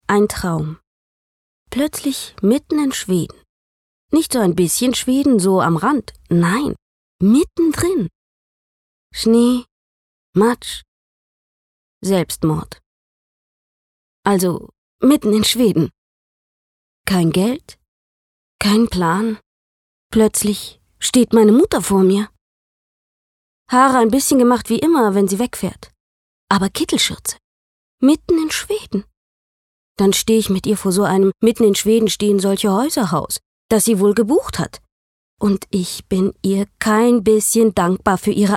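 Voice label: acting, neutral; Schauspiel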